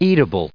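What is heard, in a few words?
"eatable", us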